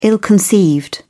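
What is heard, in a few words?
"ill-conceived", English, British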